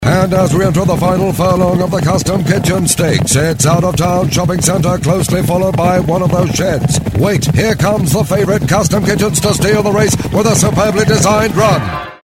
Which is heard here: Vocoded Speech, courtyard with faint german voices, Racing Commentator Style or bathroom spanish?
Racing Commentator Style